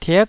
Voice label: "tik9", taiwanese Syllables